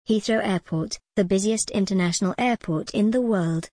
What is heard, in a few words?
en gb heathrow airport the busiest international airport in the world